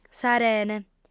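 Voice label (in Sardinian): "s'arene", female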